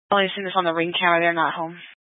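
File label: Police 1 Dispatch, Scanner audio recording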